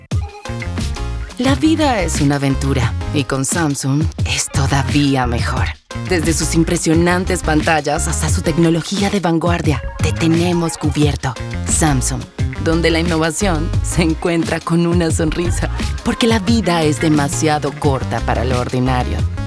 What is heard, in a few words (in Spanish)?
descomplicado directo